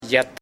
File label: "house", "casa", Nasa Yuwe; paez